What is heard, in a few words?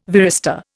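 "varistor", Indian English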